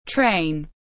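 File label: "train", English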